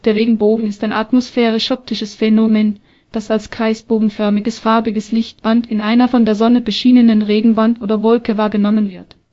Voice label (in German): speaker, de DE; piper-voices